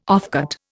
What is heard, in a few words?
"offcut", Indian